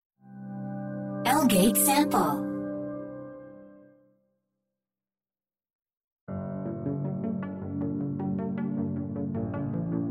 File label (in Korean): 베트남 여 차분한 톤